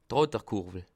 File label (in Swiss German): "tratter", Alsace